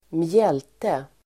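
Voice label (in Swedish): "mjälte", Swedish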